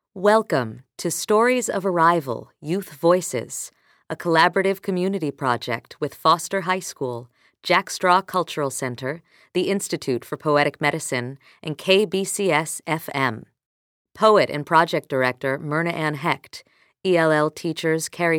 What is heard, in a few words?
Opening Narration; Foster High School Stories Arrival 2014